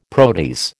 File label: "protease", American